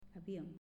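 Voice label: "Avion", Spanish, female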